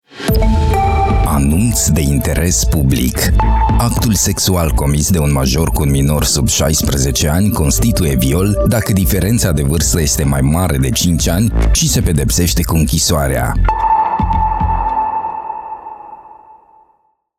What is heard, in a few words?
romanian announcements, romanian voice actors